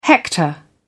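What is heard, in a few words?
"hector", British English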